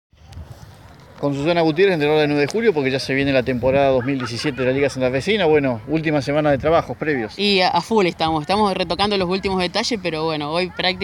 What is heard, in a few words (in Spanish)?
Nota de voz